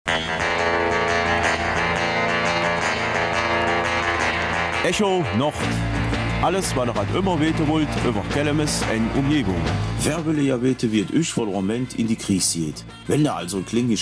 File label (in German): Kelmiser Mundart; Zusammenarbeitscharta der drei Dorfmuseen Kelmis, Bleyberg und Lontzen